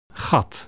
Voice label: "hole", dutch